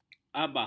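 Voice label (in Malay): "abah", Malay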